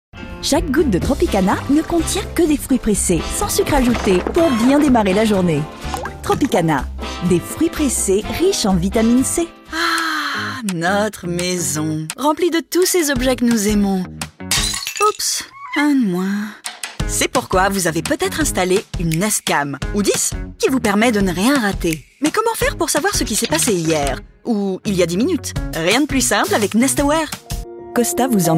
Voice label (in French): French, Commercial